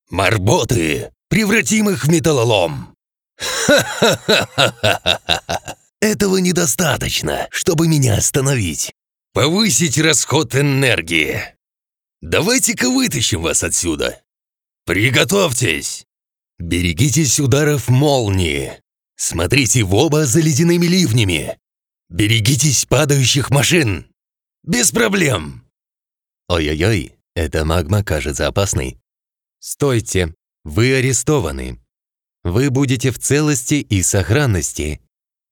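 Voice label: Russian, male, gaming characters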